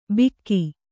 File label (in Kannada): "ಬಿಕ್ಕಿ", Kannada